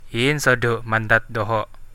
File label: go away, central dusun